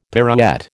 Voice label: "pirouette", American